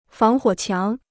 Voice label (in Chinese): "防火墙", Chinese